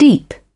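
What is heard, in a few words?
"deep", British English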